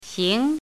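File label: "xing2" Mandarin Chinese, rising tone; chinese-voice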